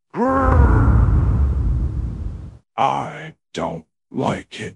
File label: upset; fertig classic games